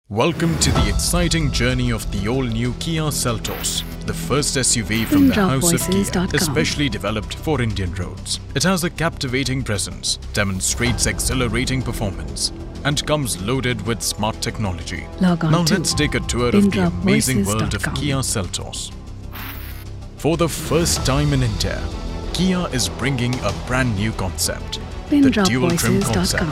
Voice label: English